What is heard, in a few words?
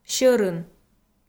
"сьӧрын", Komi